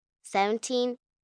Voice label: Numbers Sevnteen, 1 child, girl counting; Talking Sound Effects